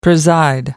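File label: "preside", British English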